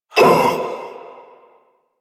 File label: Pain; Warframe; Generic Missions; Stalker